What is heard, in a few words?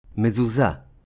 "Mezuzah", Hebrew